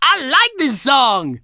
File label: clown funny glass; Wii